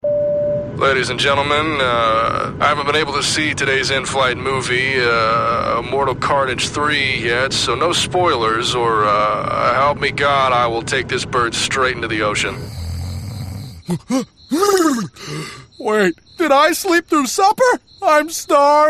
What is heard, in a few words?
Animation